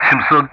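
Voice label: Speech Sound Russian; DCS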